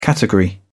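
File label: "category", British English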